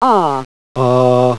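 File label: open mid back unrounded; london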